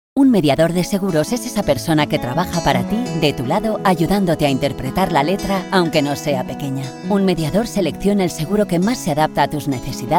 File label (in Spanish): Espanol Espana Femenina Adulta